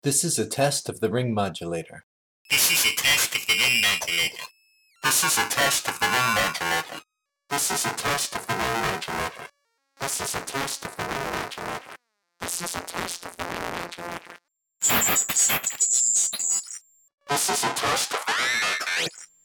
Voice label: test voice, ring modulator; delptronics